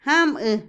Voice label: cuándo, Otomí, mujer; INALI

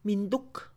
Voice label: mesquino, Mixe, Mujer; Posesión; INALI

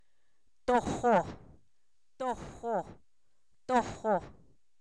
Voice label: espiga, Zapoteco, san miguel albarradas; comestible

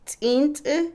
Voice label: Otomí, mujer; pájaro; Animales; INALI

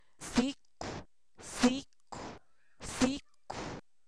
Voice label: Zapoteco, san miguel albarradas; humano; INALI

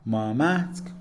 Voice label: "doce", Mixe, hombre; Cantidad; INALI

